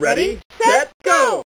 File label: Ready Go English